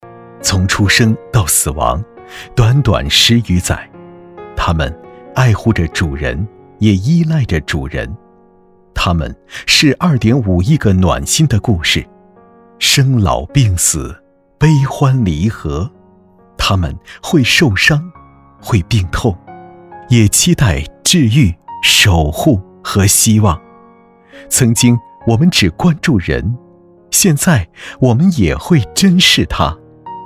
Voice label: 男 讲述; 他们爱护主人

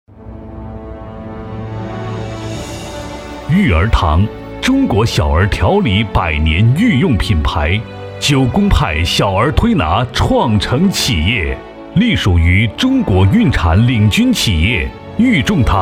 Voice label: 男 医疗专题; 御儿堂

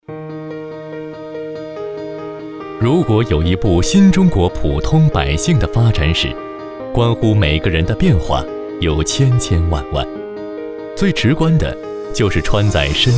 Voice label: 男 中文 品牌篇混音 干音; 雅绣之家

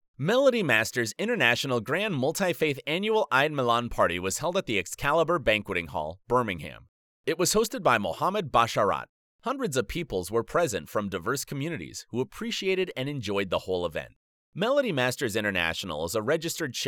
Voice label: 外籍英男 新闻播报 轻快 有力

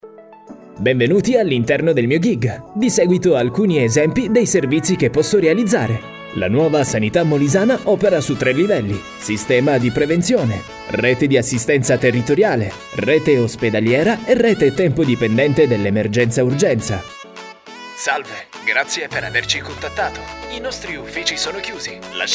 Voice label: 意大利语男 叙述

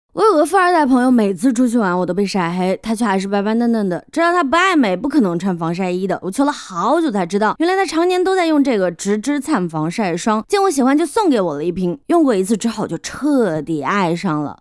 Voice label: 女 直播带货素人感

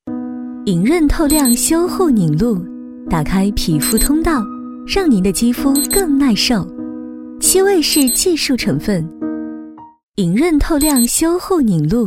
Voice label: 女 化妆品; 莹润透靓

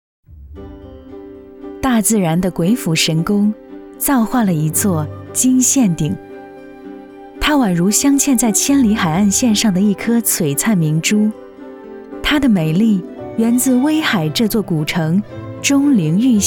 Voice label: 女 专题宣传 知性优雅; 威海

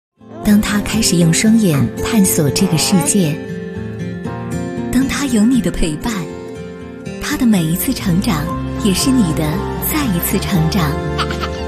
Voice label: 女 TVC 奶粉; 飞鹤奶粉

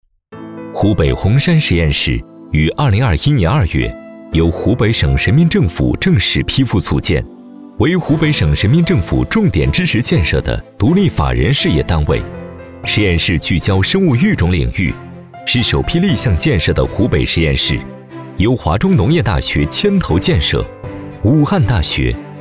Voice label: 男 专题 项目; 武汉华农项目

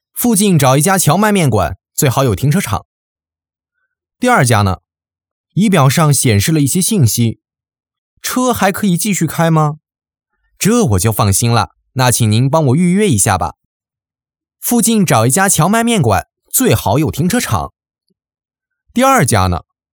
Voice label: 场景剧台词 AI客服角色配音 短剧配音

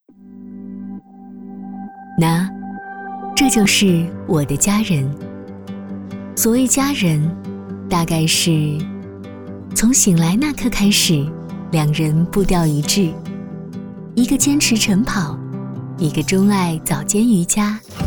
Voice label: 女 旁白 生活 亲切自然

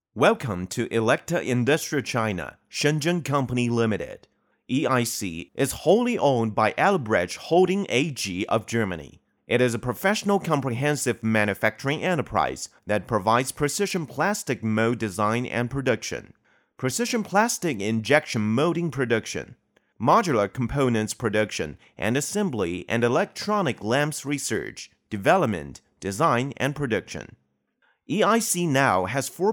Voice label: 英男 科技公司宣传片; 德仕科技

